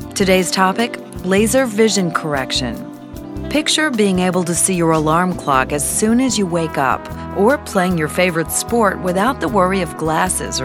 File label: RADIO Health Journal Refractive